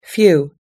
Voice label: "few", US English

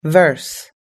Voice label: "verse", US English

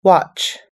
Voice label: "watch", US English

